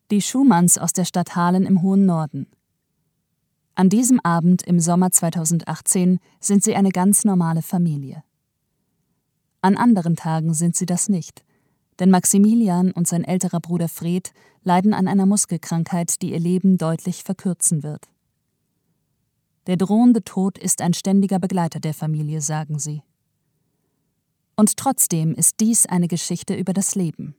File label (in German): Stimmprobe Dokumentation; Familie Schumann